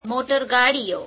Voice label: gujarati cars

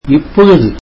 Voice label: tamil now

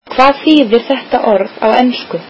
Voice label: icelandic What does that word mean in English

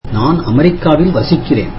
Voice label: tamil I live in the US

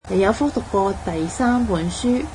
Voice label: cantonese did you read the third book

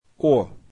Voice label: russian about

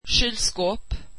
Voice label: swedish refrigerator

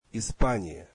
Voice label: russian Spain

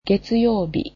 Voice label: "Monday", japanese

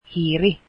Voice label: finnish mouse

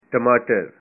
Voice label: tomatoes, punjabi

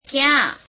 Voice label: "where", gujarati; where do you live?; ક્યાં; ke'yeām

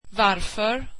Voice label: why, swedish

